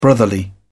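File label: "brotherly", British English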